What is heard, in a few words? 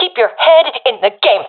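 round losing, professional female; Counter Strike Model Pack; CS:GO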